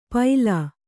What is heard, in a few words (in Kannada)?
"ಪೈಲಾ", Kannada